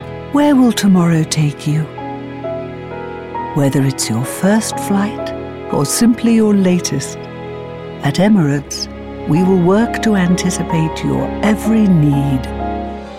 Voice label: Commercial

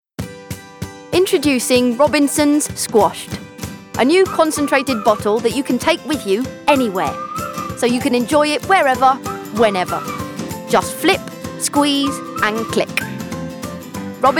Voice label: Commercial; Natural Accents: London, Neutral

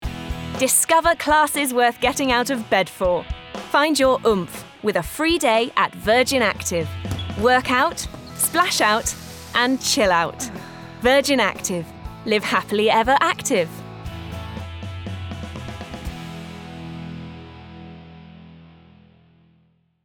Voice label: Commercial; Virgin Active

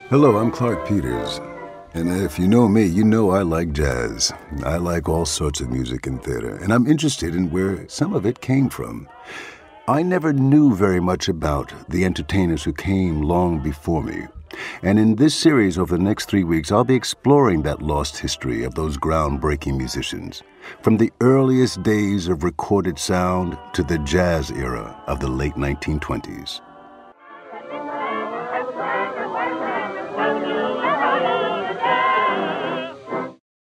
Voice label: Documentary

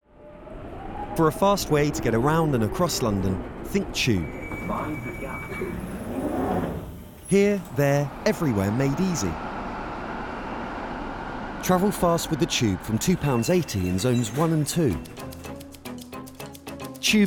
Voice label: Commercial

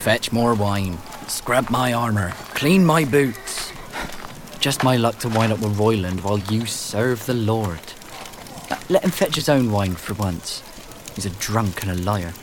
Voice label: West Country

